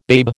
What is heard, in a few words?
"babe", American